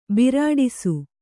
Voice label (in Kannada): "ಬಿರಾಡಿಸು", Kannada